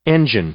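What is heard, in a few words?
"engine", English; noun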